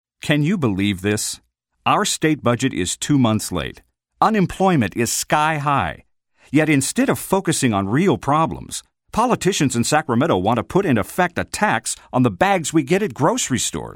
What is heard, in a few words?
Radio Ad